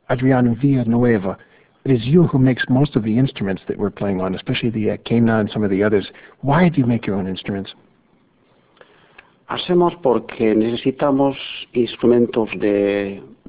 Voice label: question Spanish